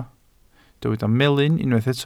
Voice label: "melyn", Welsh